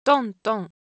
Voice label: Japanese, Ideo Phones

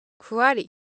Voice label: Japanese Motion, Ideo Phones

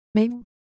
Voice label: Japanese, Ideo Phones

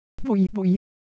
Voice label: Semai, ideophones

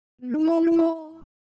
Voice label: Ewe Motion, IdeoPhones

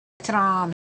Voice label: Semai Texture

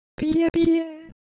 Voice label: Ewe Motion, Ideo Phones

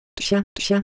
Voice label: Ewe, ideophones; Motion

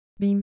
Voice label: Ewe Sound, IdeoPhones

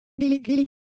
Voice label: Siwu Shape

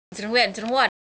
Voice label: Semai, ideophones; Motion

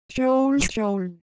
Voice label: Korean, Ideo Phones

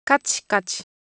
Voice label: Japanese Texture, Ideo Phones

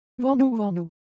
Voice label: Japanese Texture, Ideo Phones

